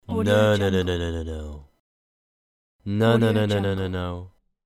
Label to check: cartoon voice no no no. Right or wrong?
Right